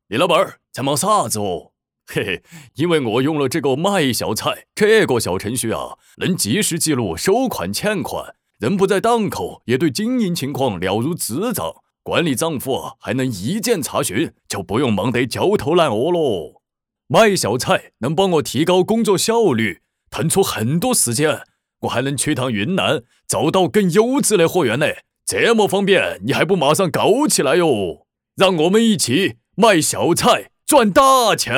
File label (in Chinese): 川普; 老张麦小菜